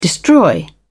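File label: "destroy", British English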